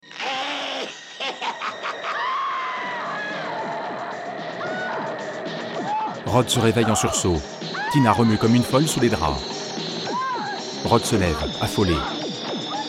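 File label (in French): voix off audiodescription; griffes de la nuit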